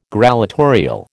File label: "grallatorial", American